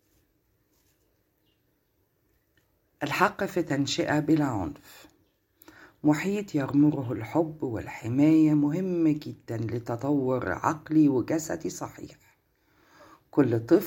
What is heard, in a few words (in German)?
gewaltfreie Erziehung arabisch